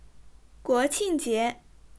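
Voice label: "guo qing jie", Chinese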